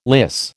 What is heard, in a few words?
"lyse", American